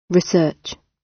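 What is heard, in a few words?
"research", British; Study, education, research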